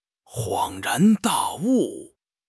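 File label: "恍然大悟", Mandarin Chinese; HSK6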